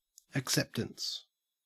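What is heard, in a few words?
"acceptance", Australian English; pronunciation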